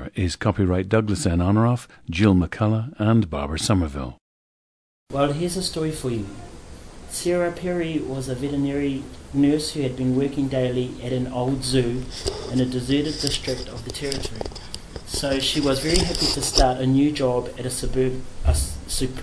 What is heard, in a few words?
New Zealand